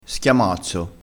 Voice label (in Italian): "schiamazzo", crespinese